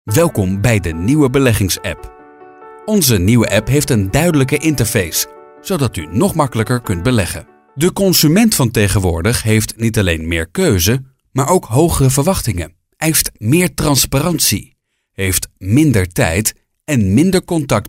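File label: image film, Dutch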